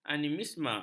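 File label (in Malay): "animisme", Malay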